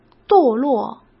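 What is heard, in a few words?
"堕落", Mandarin Chinese; chinese-flashcards; hsk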